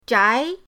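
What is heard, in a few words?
"zhai", Mandarin pinyin, second tone; pinyindct-open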